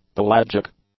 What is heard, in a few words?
"pelagic", English; adjectives